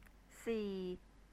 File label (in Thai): "see", Thai